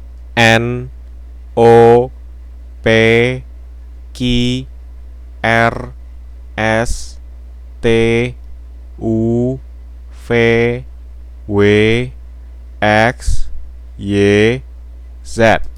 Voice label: Indonesian alphabet n z